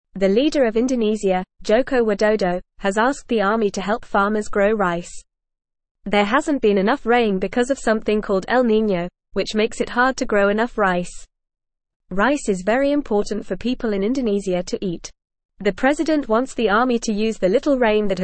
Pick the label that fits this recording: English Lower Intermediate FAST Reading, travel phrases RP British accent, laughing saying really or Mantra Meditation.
English Lower Intermediate FAST Reading